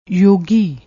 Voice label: "yogi", Punjabi